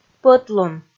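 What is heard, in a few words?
"пӧтлун", Komi, female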